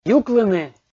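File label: "юклыны", Komi, male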